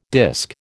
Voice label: "disc", American